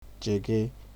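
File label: "jege", Nigerian Pidgin, male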